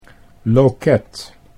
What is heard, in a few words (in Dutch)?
"loket", Dutch